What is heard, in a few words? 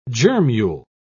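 "germule", British English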